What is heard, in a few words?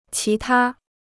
"其他", Chinese